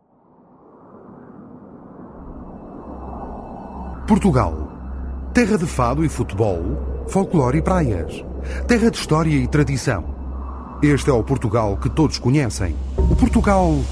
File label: portuguese movie trailers